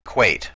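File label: "kweit", Proto-Indo-European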